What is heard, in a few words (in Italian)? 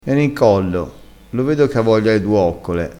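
"coccola", vocabolario dialettale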